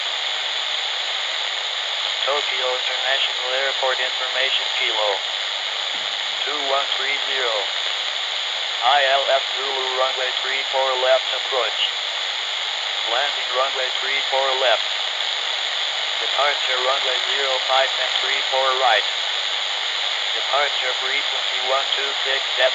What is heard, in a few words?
rjtt atis; x-plane10